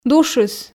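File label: "душыс", Komi, female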